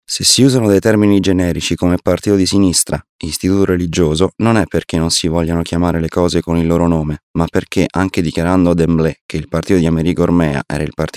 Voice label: italian male

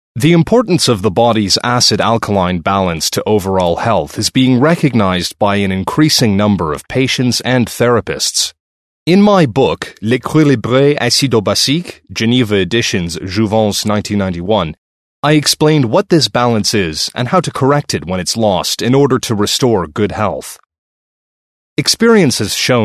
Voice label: american english male

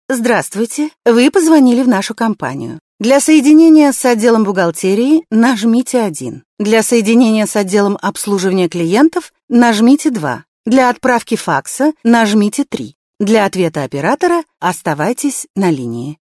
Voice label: russian female

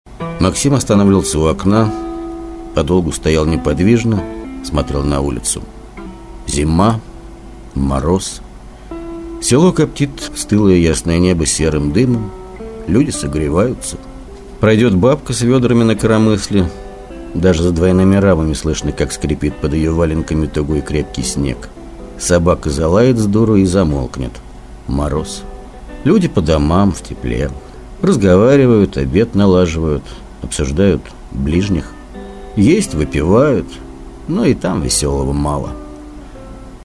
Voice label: russian male

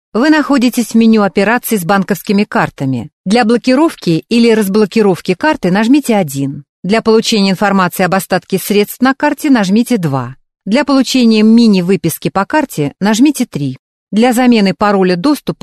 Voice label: rus uk

